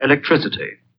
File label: Vocal electricity, pitch glitch